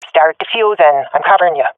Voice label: cover defuse, swat fem; vo; agents; Source Model Packs; Counter Strike Model Pack; CS2

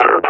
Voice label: announce kill player, combine officer; Half Life Alyx; Half Life Model Pack

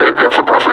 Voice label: announce attack, combine suppressor

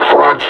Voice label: "front", suppressor; Half Life Alyx; combine

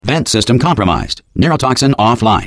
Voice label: neurotoxin, announcer; Portal 2

